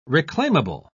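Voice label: "reclaimable", British English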